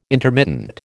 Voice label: "intermittent", American

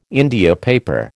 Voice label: "India paper", American

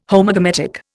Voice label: "Homogametic", Indian

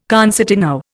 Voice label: "concertino", Indian

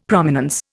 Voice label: "Prominence", Indian; Dictionary

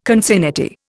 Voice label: "concinnity", Indian

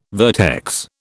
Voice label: "vertex", British

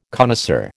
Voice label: "connoisseur", American

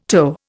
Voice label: "toe", Indian

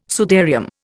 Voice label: "sudarium", Indian

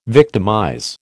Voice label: "victimize", American

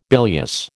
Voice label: "bilious", American

